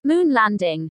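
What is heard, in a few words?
"moon landing", English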